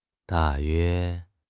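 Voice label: "da4 yue1", Mandarin Chinese; HSK4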